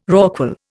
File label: "rorqual", Indian